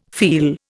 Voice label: "feel", Indian